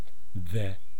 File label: "the", East Cree syllabics